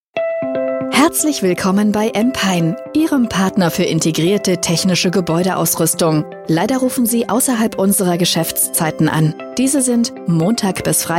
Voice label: german female voice telephone